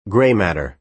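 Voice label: "grey matter", American English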